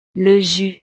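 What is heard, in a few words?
"le jus", French; desayuno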